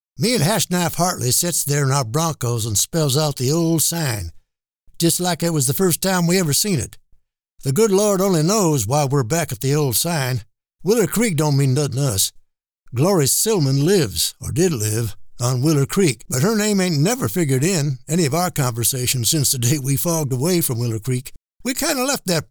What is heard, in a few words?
Cowboy Old Timer